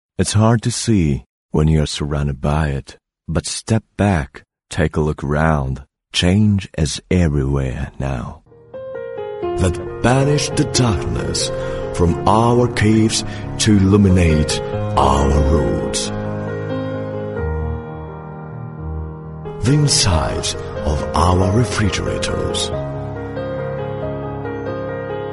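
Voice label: Italian English, male, narration, accent of italy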